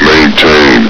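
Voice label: "maintain", male; hl2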